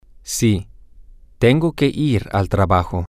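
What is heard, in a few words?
yes I have to go to work place, Spanish, male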